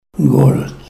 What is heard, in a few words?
"gorat", Ninilchik Russian